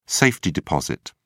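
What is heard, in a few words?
"safety-deposit", English, British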